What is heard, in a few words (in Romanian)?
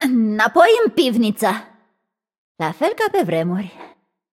Room2 VO Object Interact, vo, ro-ro